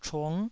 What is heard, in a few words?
"chung9", taiwanese